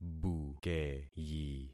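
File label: "bukeyi", concatenated syllables; Affixing experiment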